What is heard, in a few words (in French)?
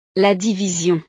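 "la division", French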